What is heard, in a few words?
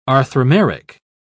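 "arthromeric", English, British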